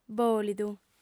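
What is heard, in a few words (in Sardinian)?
"bòlidu", female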